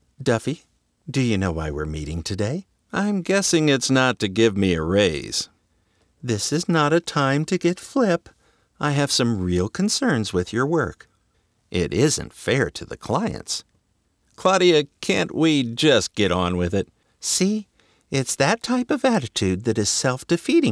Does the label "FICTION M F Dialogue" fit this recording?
Yes